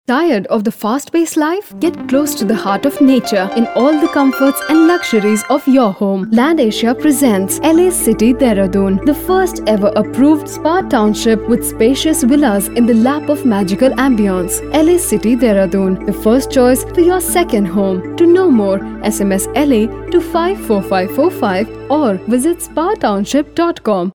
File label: female voice